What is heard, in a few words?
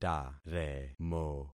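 "taremo", concatenated syllables; Affixing experiment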